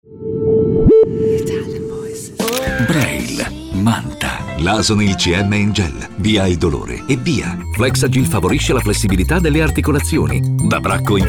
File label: ITALIAN VOICES Friendly Commercial